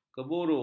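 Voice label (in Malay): "keburu", Malay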